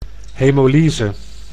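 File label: "hemolyse", Dutch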